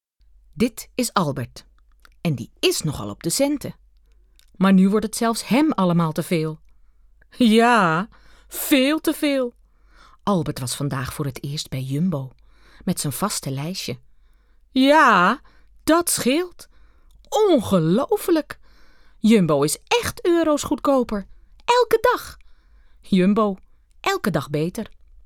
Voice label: voice over